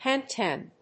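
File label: "hang ten", English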